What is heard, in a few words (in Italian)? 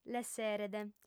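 "l'esseret", lingua sarda, fem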